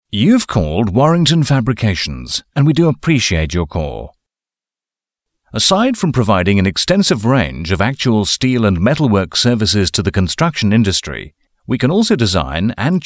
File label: Male Professional VO